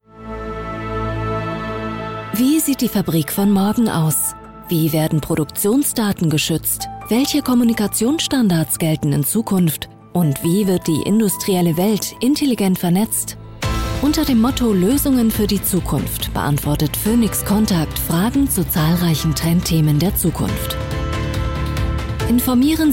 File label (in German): Messetrailer; Phoenix Contact